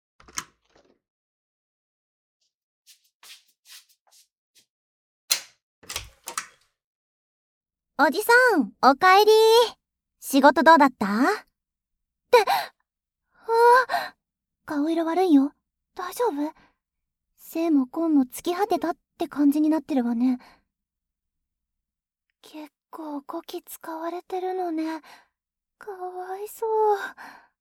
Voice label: 幸福マッサージ, ASMR; 押し掛け姪っ子JKと過すイチャ甘ワンルームライフ

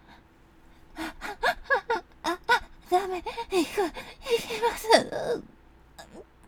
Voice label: セリフ, ASMR; 清楚系ビッチお姉さんの全力潮吹きオナニー; THE FIRST SCENE

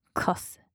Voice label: カス・強く・極近・左, ASMR

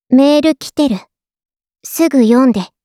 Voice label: メール 受信 ボイス; 春日野穹; 春日野穹のいる生活; KU100バイノーラル

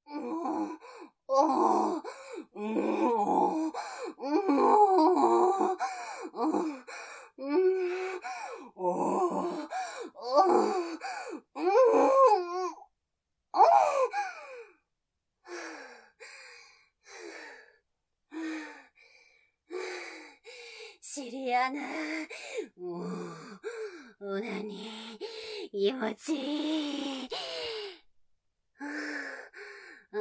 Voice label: 台詞のみ, ASMR; 熟女指導員の秘め事～野外排泄＆フェラチからの精液キス～; 実践!有機肥料の作り方 熟女指導員によるおほ声講習

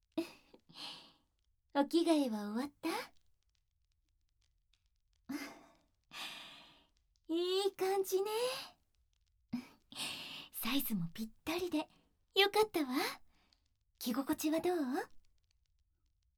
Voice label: 暖炉でぬくぬく甘やかし添い寝; 素股おま◯こでクリイキ射精♪; SEあり

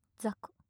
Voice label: ざこ・近・左, ASMR

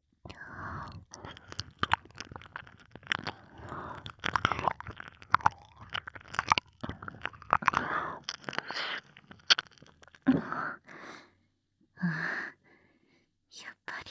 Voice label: シコシコ囁き耳舐め手コキしてみたい; あすきぃきゅーぶ x VOICE LOVER; 幼馴染みのカラダがエロいド陰キャお姉ちゃん

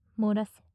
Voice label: 漏らせ・近・右, ASMR

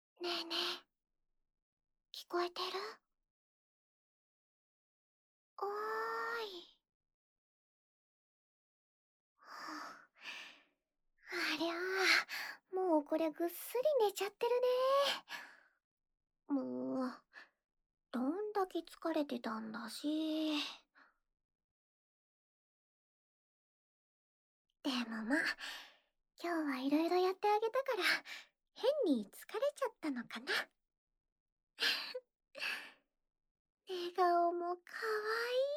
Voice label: 添い寝; 一緒に添い寝っしょ〜♪

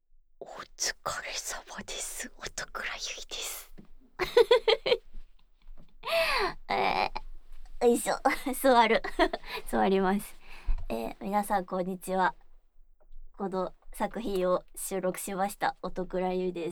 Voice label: フリートーク; クラスの気になるあの子と濃密えっちなスクールライフ

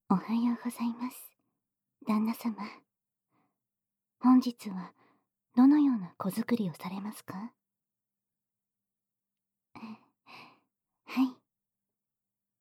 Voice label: ラブラブ目覚まし音声♪; エルザ

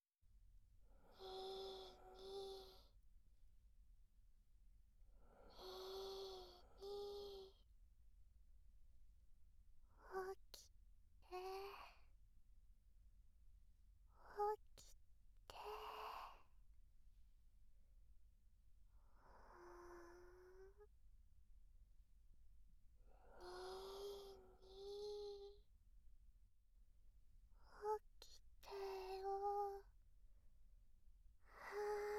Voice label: 寝起きのフェラチオ, 声がちっちゃすぎるダウナー妹; フゥ

